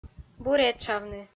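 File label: "буретшавны", Komi, female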